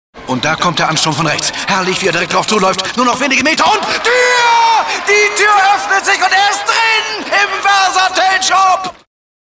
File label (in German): fussballreporter